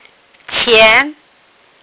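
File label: "qian2", Mandarin Chinese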